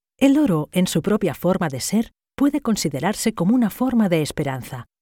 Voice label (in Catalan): corporativo, locutora